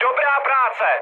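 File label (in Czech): Czech player killed enemy, mood medium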